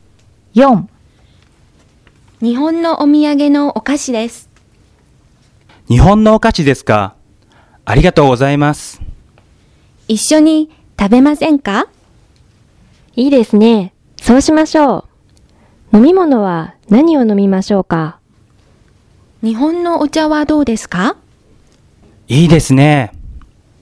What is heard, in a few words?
Conversation